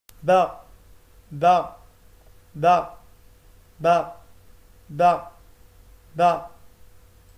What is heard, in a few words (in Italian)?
baa arabo